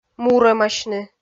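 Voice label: "мурӧмасьны", Komi, female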